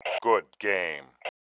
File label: GOOD GAME, male